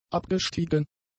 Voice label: "abgestiegen", German; adjectives

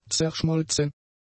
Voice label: "zerschmolzen", German; adjectives

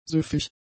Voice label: "süffig", german; adjectives

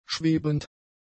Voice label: "schwebend", German; adjectives

